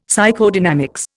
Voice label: "Psychodynamics", Indian; Dictionary